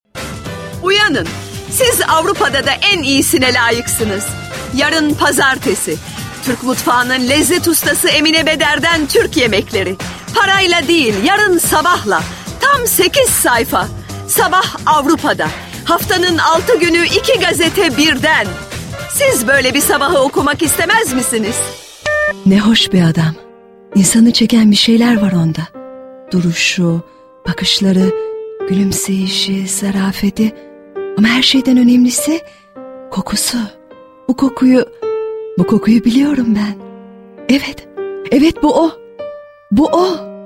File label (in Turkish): reklam